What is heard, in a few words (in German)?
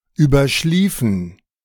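"überschliefen", German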